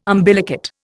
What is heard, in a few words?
"umbilicate", Indian